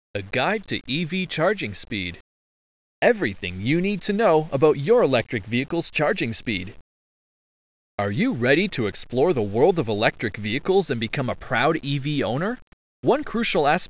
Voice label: Voiceover; A guide to EV charging speed